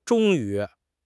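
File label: "zhong1 yu2", Mandarin Chinese; HSK3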